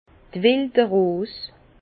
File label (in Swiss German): "welde rose", Bas Rhin; vin; églantine